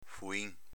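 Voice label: "fuin", Venetian dialect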